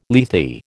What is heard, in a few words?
"Lethe", American